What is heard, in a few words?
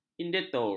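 "indentur", Malay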